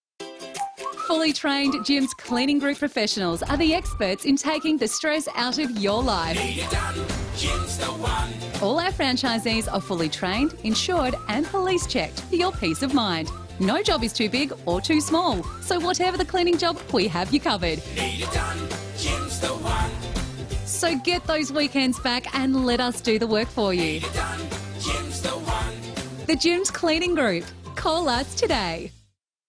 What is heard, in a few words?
National TV Commercial; Jim's Cleaning Group